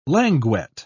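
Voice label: "languet", British English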